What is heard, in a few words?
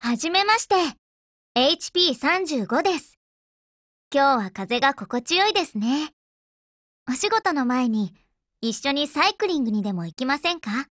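GAIN, Japanese; BrowningHP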